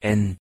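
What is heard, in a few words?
"n" German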